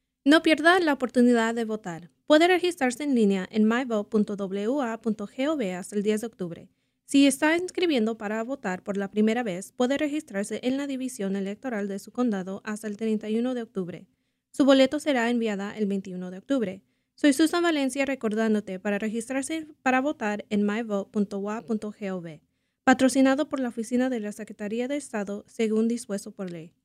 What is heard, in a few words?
reg deadline, spanish